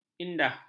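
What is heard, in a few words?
"indah", Malay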